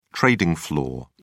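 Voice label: "trading floor", British English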